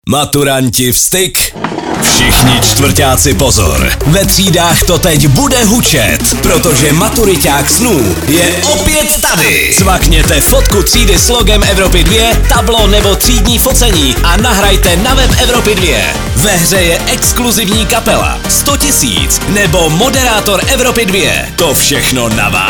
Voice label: promo; EVROPA 2